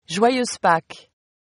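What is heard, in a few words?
happy easter, fr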